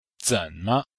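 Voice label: "zen3me5", Chinese; Cours de Chinois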